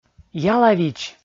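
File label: "ялавич", Komi, male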